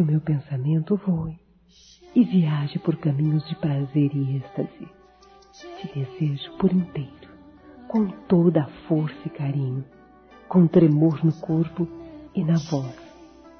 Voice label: Você me Excita Feminino; Telemensagens 2017; Românticas

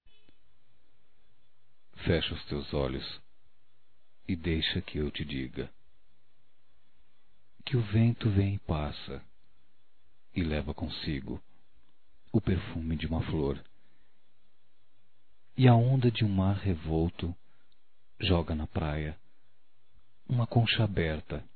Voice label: Romantica Poesia Masculino, Telemensagens; Românticas

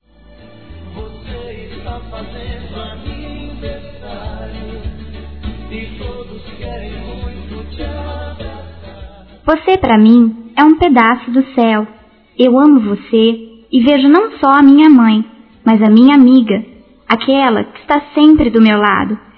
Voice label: Aniv. Mãe infantil Feminino; Aniversário Infantil; Telemensagens

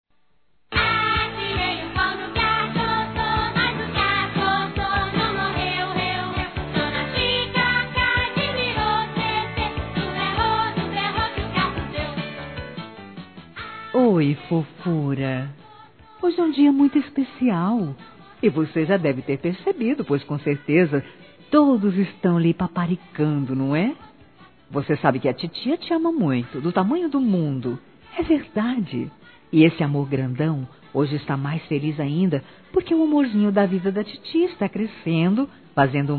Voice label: Sobrinha Criança Feminino, Aniversário Infantil; Telemensagens

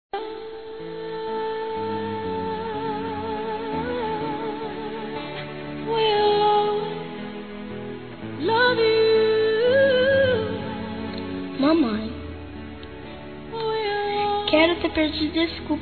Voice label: ANIV. MAMÃE-F, Telemensagens; Aniversário Infantil

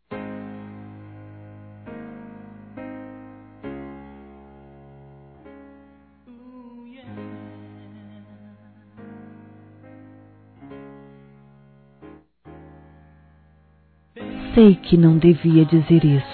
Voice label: Conquista Romantica Suave Feminino; Telemensagens; Conquistas Cantadas paqueras; Telemensagens 2017

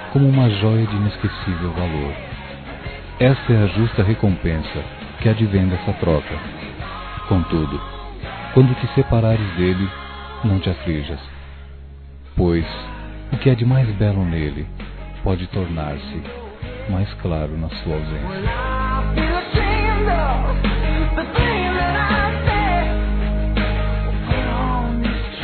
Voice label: Aniversário Amigo Masculino; Telemensagens 2017; Aniversários; Aniversário de Amigos

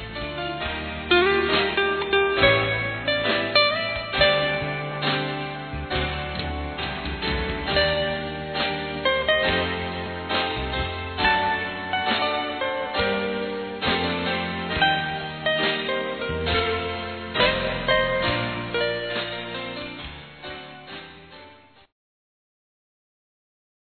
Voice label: Para Padrinho Feminino, Telemensagens, Dia dos Pais; Telemensagens 2017

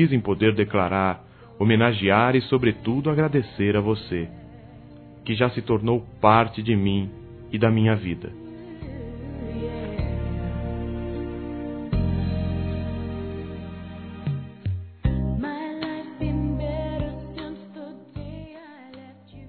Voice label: DECLARAÇÃO DE AMIZADE, Telemensagens, Masc

